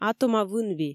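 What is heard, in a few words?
"атома вынви" Komi, female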